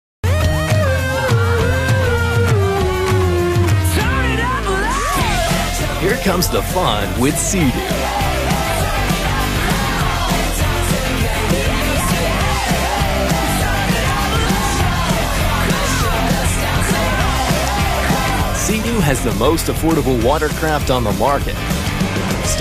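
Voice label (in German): US English Dynamisch; Fremdtake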